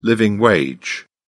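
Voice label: "living wage", British English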